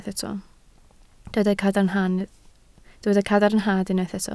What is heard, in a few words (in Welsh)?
"cadarnhad" Welsh